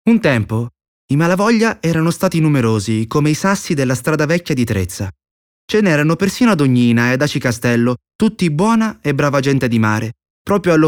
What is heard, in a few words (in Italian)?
Descrittivo